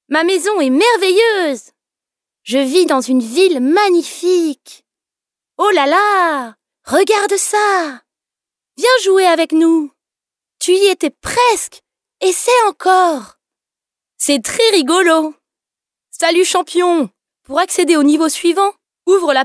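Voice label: French female children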